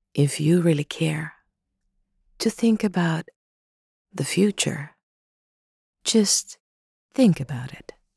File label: Soft US